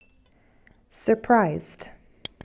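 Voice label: "surprised", English; adj; fichiers sons du vocabulaire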